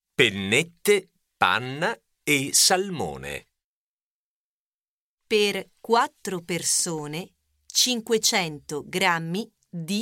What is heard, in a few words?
Slow, Cuisine, Italian